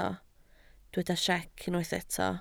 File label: "siec", Welsh